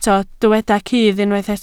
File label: "cudd", Welsh